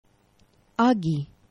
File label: "agi", esperanto; geneva